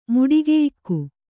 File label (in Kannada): "ಮುಡಿಗೆಯಿಕ್ಕು", kannada